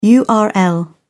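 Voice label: "url", British English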